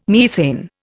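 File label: "methane", English, Indian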